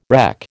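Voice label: "reck", American English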